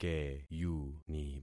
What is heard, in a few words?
"keyuni", concat syllables; Affixing experiment